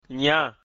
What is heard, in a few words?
"nyan", mina